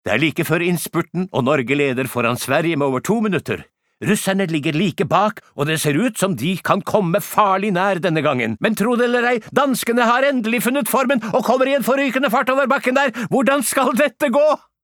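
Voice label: Norwegian dub commentator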